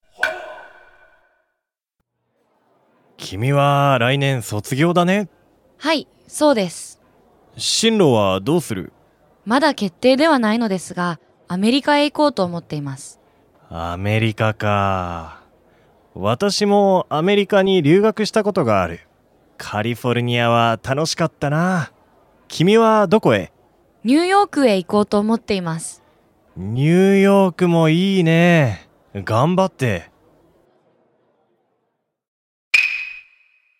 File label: dialog; JapanesePod101; Beginner Season 1